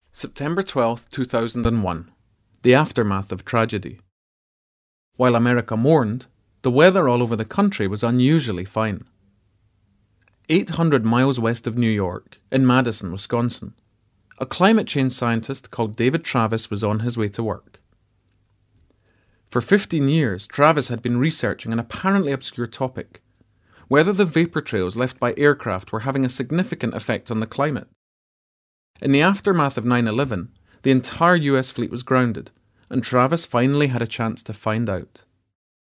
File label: Narration; Global Dimming; Vocal Point